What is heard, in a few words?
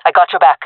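following friend, jungle female; vo; agents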